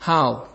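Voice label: "how", Nigerian Pidgin, male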